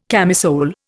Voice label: "camisole", Indian

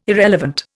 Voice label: "irrelevant", Indian

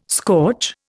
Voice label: "scorch", Indian

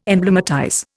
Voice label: "emblematize", Indian

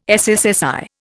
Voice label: "SSSI", Indian

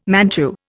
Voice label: "Manchu", Indian

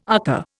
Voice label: "UCCA", Indian

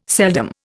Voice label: "seldom", Indian

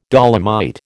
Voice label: "dolomite", American

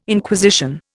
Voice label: "Inquisition", Indian

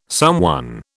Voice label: "someone", British

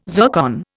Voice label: "zircon", Indian

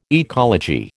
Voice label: "ecology", American

